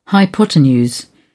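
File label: "hypotenuse", British English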